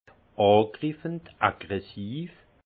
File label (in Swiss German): "angriffend", alsace; vin; agressif